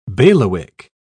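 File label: "bailiwick", British English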